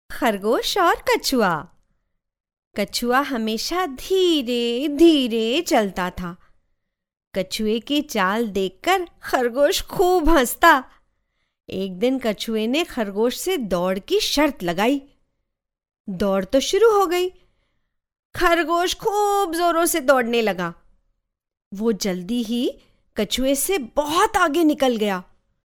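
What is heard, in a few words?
Hindi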